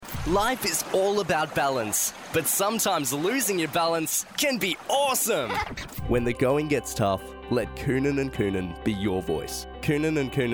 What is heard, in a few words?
General VO